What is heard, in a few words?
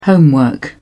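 "homework", British English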